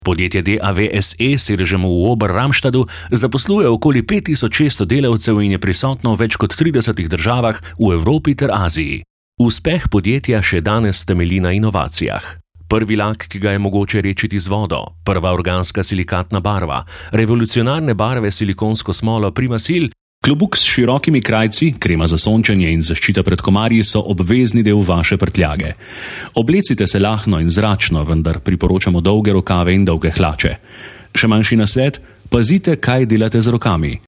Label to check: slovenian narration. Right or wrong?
Right